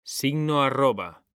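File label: "arroba", Spanish; mekanta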